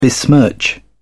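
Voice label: "besmirch", British English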